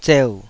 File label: "zeu3", taiwanese syllables